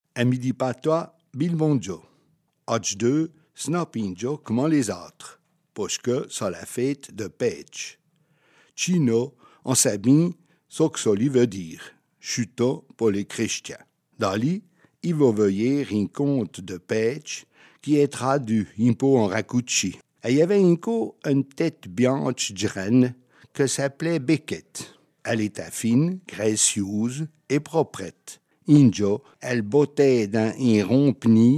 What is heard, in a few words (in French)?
patois